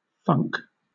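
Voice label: "funk", British English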